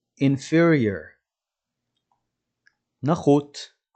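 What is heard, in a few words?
"inferior" English, "נחות" Hebrew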